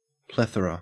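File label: "plethora", Australian English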